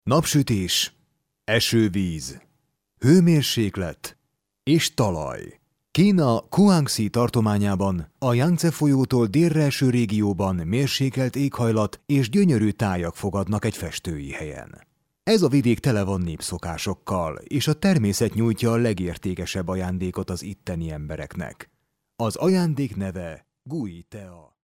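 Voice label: Hungarian, male, narration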